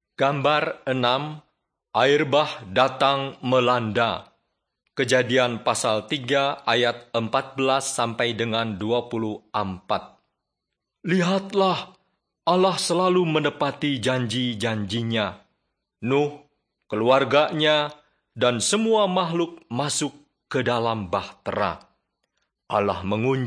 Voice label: bahasa indonesia; beginning with god

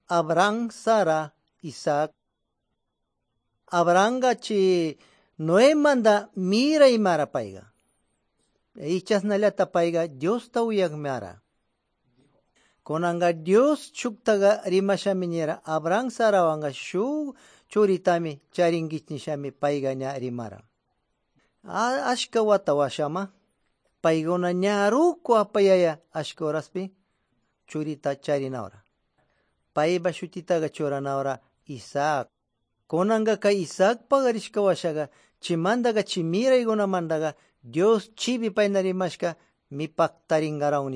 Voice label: good news quichua de pastaza

